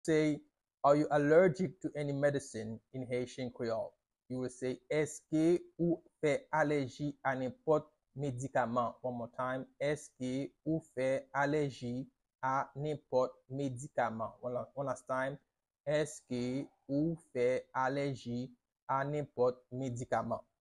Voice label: Are you allergic to any medicine in Haitian Creole Eske ou fe aleji a nenpot medikaman pronunciation